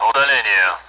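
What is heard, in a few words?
Speech Sound Russian Common AWACS; DCS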